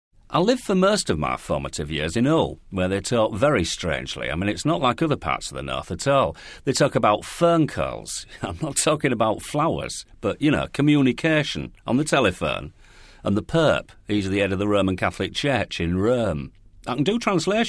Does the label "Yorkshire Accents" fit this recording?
Yes